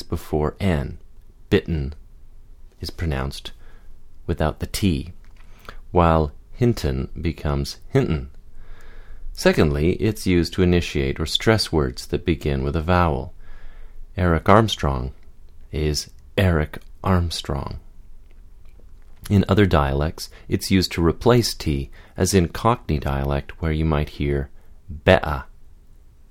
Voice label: glottal